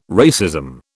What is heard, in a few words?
"racism", British